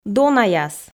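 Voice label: "донаяс", Komi, female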